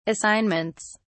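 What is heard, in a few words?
"assignments", British English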